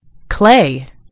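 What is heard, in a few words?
"clay", American English; gcompris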